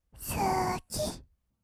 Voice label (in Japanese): "すき", kawaii voice; ASMR; 色々なワンショット